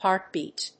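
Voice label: "heartbeat", English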